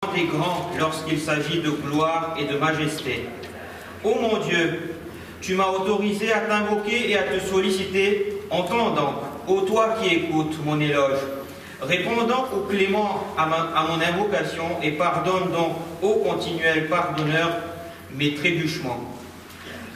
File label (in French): Dua Iftetah recite en francais; khojaradio